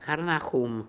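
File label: "Harnahoom", pronunciations; Fourth Way; Beelzebub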